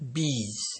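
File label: "biz", Nigerian Pidgin, male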